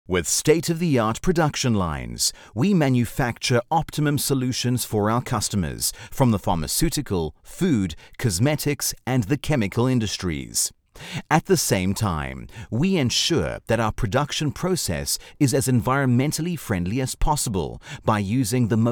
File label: Presentation VO